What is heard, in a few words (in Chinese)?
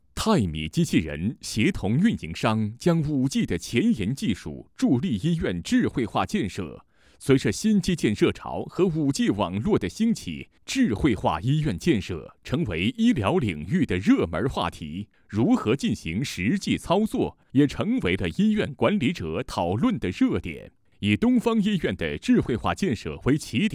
医院配音